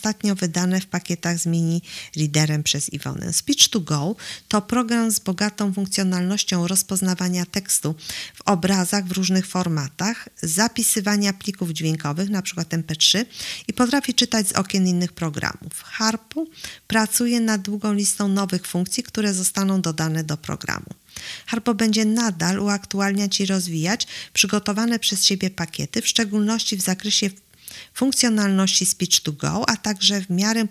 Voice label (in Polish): syntezator mowy iwona, tyflotechnika